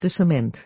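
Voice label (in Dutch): "de cement", Dutch; Natuur samenvatting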